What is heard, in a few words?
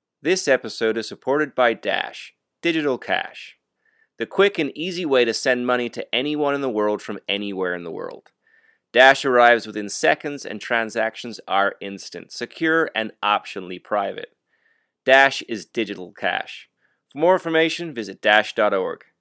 Pre-roll Speed and Efficiency US; Pre-roll Speed (US final)